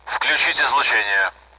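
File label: Speech, Russian, AWACS; radar on; DCS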